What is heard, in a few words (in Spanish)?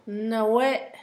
lastimado, Zapoteco, Asunción Ocotlan; Adjetivos; INALI; Haspelmath